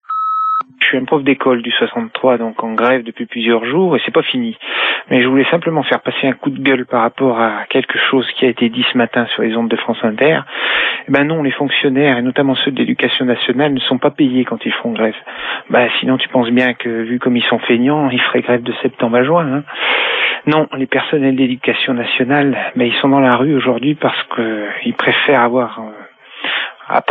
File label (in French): Manif retraites profs repondeur